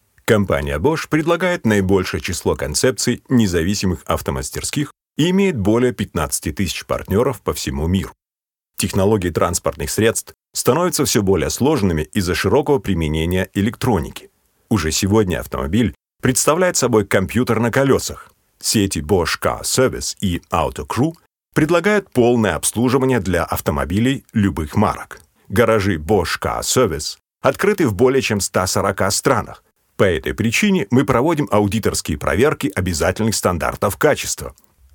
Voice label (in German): Freundlich, RU